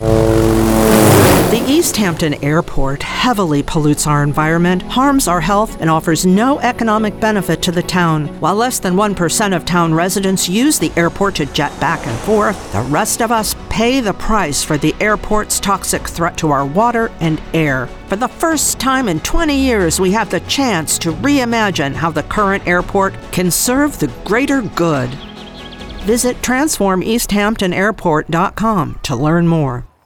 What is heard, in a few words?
Political Ad